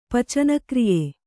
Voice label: "ಪಚನಕ್ರಿಯೆ", Kannada

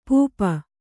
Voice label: "ಪೂಪ", Kannada

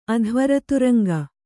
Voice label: "ಅಧ್ವರತುರಂಗ", kannada

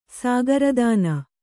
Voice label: "ಸಾಗರದಾನ", Kannada; sāgara dāna; noun

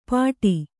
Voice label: "ಪಾಡಿ", Kannada

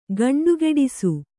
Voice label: "ಗಂಡುಗಿಡಿಸು", Kannada

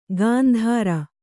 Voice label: "ಗಾಂಧಾರ", Kannada

